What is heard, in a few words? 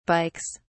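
"bikes", British English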